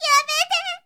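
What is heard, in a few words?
"yamete", girl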